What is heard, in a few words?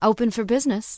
Human Female Official NPC Vendor